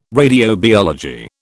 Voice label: "radiobiology", British